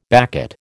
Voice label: "baccate", American

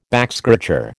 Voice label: "backscratcher", American

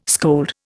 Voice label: "scold", Indian

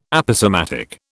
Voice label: "Aposematic", British

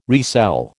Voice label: "resell", American

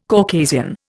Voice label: "Caucasian", Indian

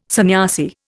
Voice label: "sanyasi", Indian

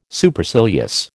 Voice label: "Supercilious", American

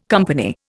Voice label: "company", Indian

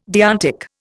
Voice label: "deontic", Indian

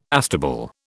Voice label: "astable", British

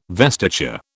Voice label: "vestiture", British

